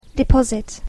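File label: "deposit", English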